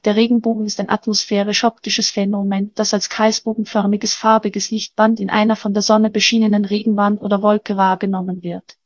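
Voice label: speaker, American English; piper-voices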